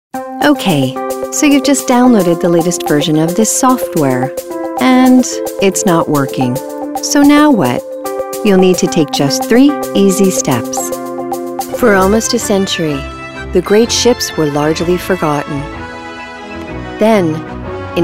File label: NARRATION